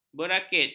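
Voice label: "berakit", Malay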